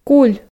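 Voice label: "куль", Komi, female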